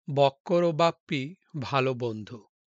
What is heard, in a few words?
Male